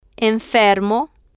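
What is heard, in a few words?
"enfermo", Spanish, female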